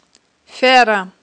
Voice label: "фера", Aghul